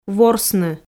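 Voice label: "ворсны", Komi, female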